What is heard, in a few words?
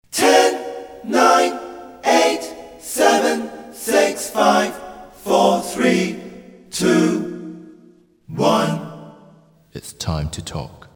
Answering Machine Message; Uh-Ahh